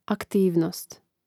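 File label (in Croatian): "aktivnost", Croatian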